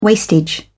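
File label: "wastage", British English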